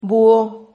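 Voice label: "buho", Spanish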